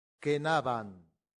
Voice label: "cenabant", latin; santillana

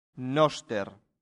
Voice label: "noster", Latin; santillana

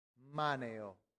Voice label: "maneo", latin; santillana

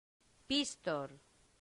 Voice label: "pistor", latin; santillana

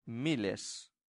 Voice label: "miles", latin; santillana

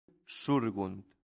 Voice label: "surgunt", latin; santillana

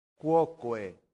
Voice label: "quoque", latin; santillana

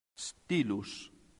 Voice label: "stylus", Latin; santillana

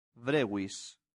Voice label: "brevis", latin; santillana

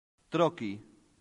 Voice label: "trochi", latin; santillana

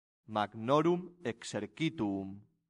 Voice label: "magnorum exercituum", latin; santillana

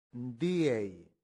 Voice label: "diei", Latin; santillana

